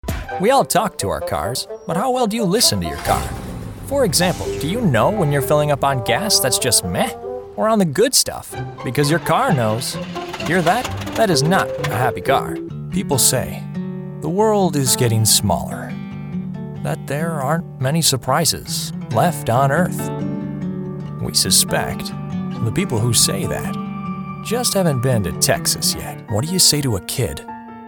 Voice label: Commercial